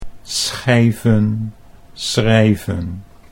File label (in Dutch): schijven schrijven, Dutch